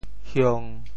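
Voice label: "hiong1", Teochew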